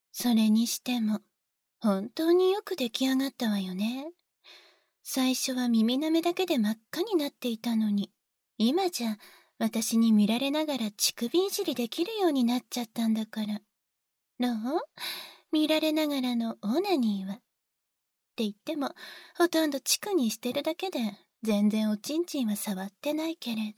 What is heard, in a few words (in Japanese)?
メスイキ(ハードめ)カウントダウン, ASMR; マゾ奴隷完了